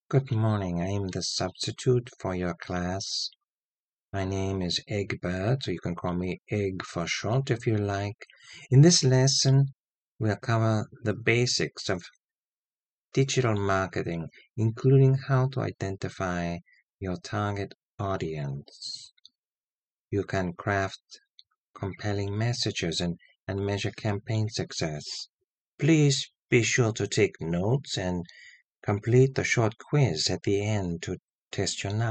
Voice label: Internal Training Videos creepy, voice over narration; Creepy Substitute Teacher